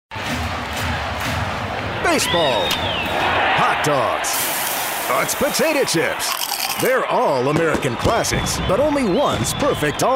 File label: animation